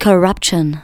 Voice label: "corruption", spoken; Techno Trance Essentials